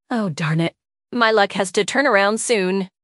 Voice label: upset; fertig classic games